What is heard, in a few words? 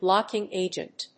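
"blocking agent", English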